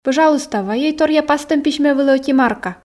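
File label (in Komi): пӧжалуйста вайӧй торъя пастӧм письмӧ вылӧ ӧти марка, Komi, female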